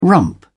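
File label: "rump", English, British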